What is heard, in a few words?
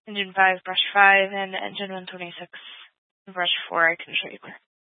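Incident Tactical 1, Scanner audio recording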